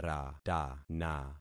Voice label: "ratana", concat syllables; Affixing experiment

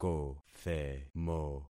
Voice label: "kothemo", concat syllables; Affixing experiment

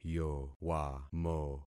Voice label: "yowamo", concat syllables; Affixing experiment

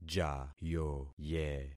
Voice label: "jayoye", concat syllables; Affixing experiment

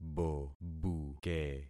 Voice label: "bobuke", concat syllables; Affixing experiment

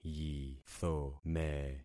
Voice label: "yithome", concat syllables; Affixing experiment

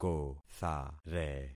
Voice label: "kothare", concat syllables; Affixing experiment

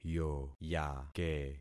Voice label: "yoyake", concat syllables; Affixing experiment

